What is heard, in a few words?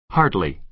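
"hardly", American English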